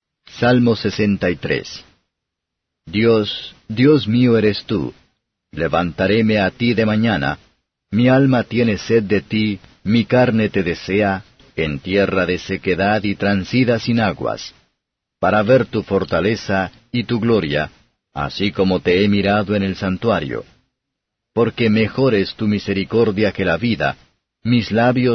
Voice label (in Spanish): salmos spanish